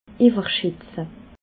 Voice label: "iwwerschatze", alsace

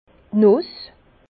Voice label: "nuss", Bas Rhin; vin; noix

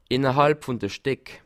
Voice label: "streck", Alsace

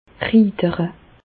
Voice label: "riidere", Bas Rhin; medecine; trembler

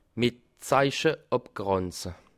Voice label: "abgranze", alsace

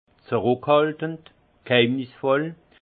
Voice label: "zruckhaltend", alsace; vin; discret

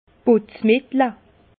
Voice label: "putzmittel", alsace

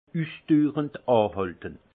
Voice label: "usdurend", alsace; persistant

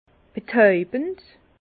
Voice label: "betaubend", Bas Rhin; vin; capiteux

